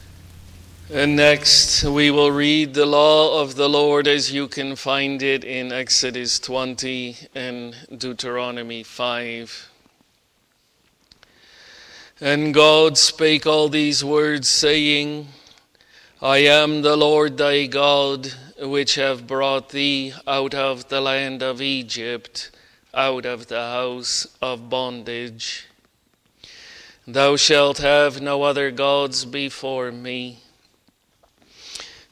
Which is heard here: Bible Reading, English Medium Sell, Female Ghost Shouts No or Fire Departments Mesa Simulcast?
Bible Reading